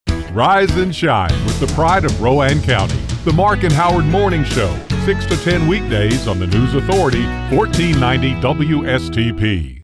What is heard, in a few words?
Radio Promo